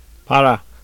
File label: Turkish pʰ